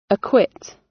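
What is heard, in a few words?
"acquit", British; Crime and punishment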